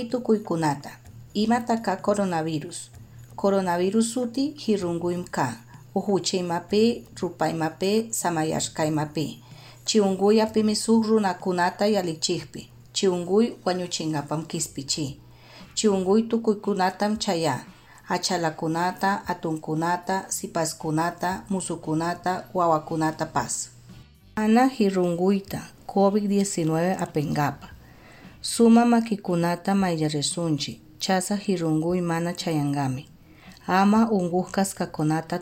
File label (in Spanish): Lengua Inga